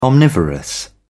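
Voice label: "omnivorous", English, British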